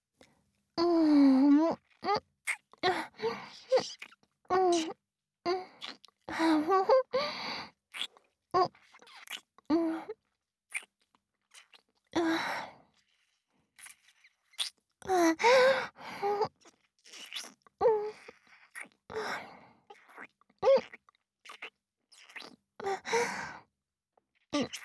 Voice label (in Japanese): Whisper むすめ